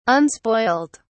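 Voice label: "unspoilt", British English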